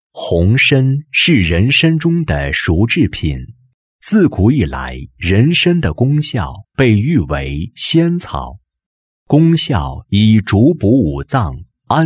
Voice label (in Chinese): 男 产品介绍 大补红参介绍